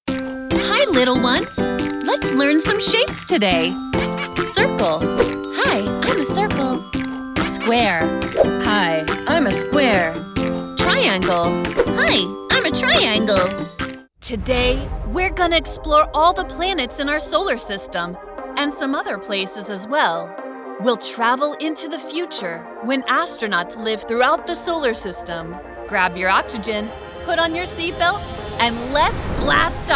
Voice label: Kids Education